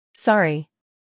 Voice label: "sorry", English

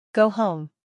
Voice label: "go home", English

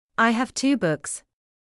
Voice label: "I have two books", English

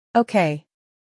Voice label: "okay", English

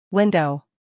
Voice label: "window", English

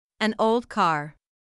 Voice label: "an old car", English

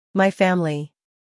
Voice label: "my family", English

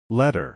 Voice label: "letter", English

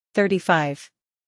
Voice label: "thirty-five", English

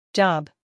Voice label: "job", English

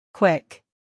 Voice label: "quick", English

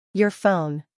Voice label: "your phone", English

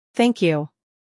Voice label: "thank you", English

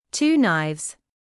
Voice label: "two knives", English

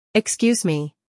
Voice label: "excuse me", English

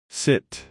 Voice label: "sit", English

